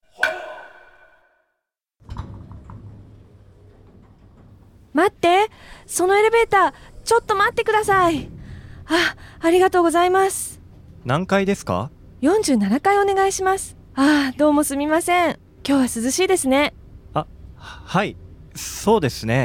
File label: dialog; JapanesePod101; Beginner Season 1